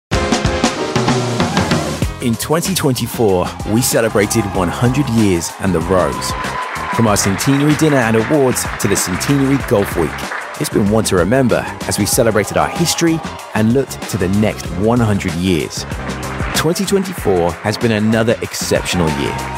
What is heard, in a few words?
urban male; england golf